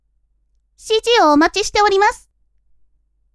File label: women